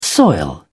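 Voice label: "soil", British English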